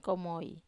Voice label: "komoi", Wamesa

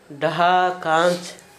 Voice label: small glass, Gta, Dialect: Hill; small glass ball; household

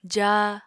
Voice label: "jaa", Apatani

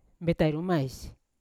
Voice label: "betairumais" because, Yawa

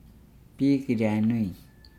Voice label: "boar large pig", Nukuoro

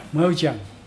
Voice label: better, Mokilese

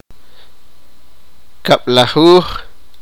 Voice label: sipakapense; twelve

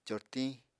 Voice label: "cortiñ", Apatani

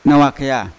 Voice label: "nawa kea" lips, Yawa

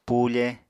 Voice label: "pufe", Apatani

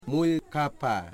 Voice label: "betelnut tree", Wamut

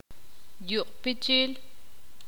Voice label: ixil; saltar

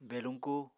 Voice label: "beluñku", Apatani

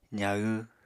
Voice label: "zahw", Apatani

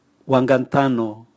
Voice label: truck, Raga

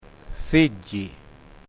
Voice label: "little bird pajaro pequeño", uitoto

